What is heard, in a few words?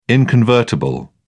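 "inconvertible", English, British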